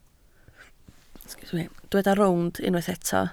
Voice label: "rownd", Welsh